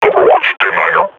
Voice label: combat idle, grunt; Half Life Model Pack